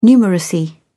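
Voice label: "numeracy", British English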